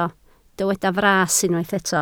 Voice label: "fras", Welsh